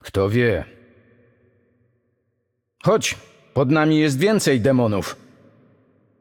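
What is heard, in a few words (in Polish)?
Intro, Polish; Yunara; bloom minigame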